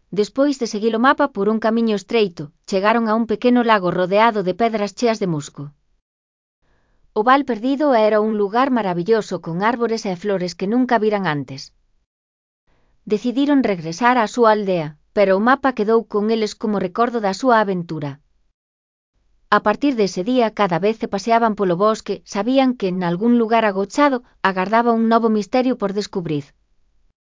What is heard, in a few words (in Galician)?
NARRACION